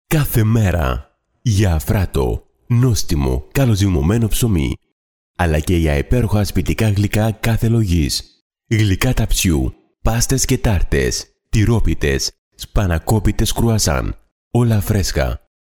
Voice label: male greek voice over sales